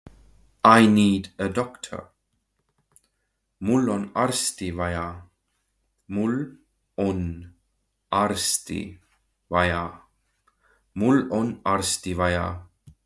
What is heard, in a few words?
I need a doctor, estonian; the talking estonian phrasebook